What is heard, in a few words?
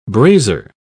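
"brasier", British English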